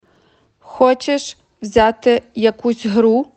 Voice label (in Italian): Ucraino